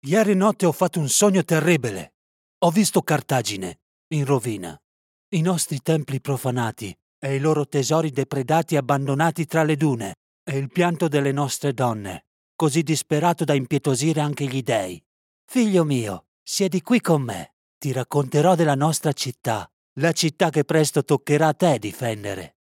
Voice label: Italian animation